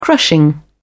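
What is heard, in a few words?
"crushing", British English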